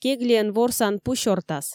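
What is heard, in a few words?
"кеглиӧн ворсан пу сёртас", Komi, female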